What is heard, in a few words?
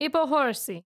civ female retreat, hellenes